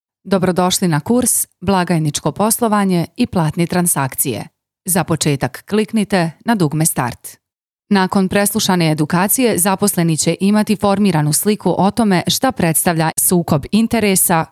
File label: elearn